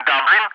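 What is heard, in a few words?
"Dublin", English, ATC callsign; DCS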